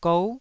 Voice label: "gou9", Taiwanese syllable